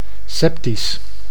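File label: "septisch", Dutch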